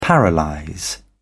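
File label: "paralyse", British English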